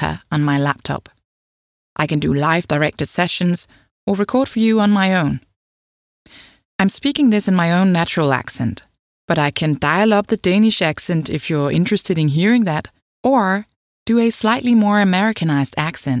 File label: Home Studio Sample With Plugins